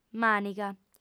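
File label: "màniga", Sardinian, female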